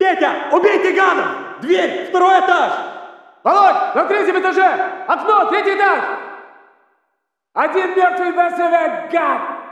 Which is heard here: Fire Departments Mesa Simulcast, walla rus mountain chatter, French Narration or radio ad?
walla rus mountain chatter